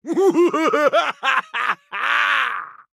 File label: Male Blood Elf Attack